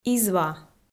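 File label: "изва", Komi-Zyrian, female; audio dict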